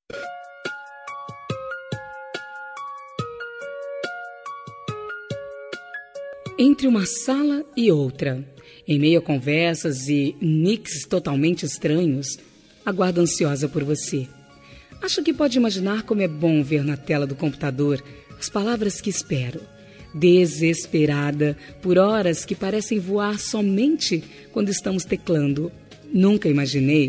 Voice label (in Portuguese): virtual fem, Telemensagem Romântica Virtual – Voz Feminina